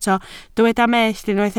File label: "mellt", Welsh